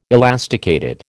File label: "elasticated", American